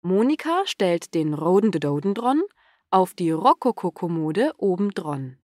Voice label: korrekte Aussprache Rhododendron

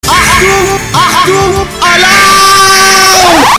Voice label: achtung achtung alarm

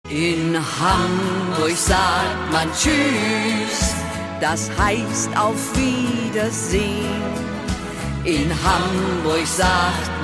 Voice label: in hamburg sagt man tschuss

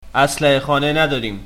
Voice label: peasant male; stronghold

